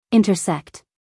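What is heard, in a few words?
"intersect", American English, female; everyone-can-use-english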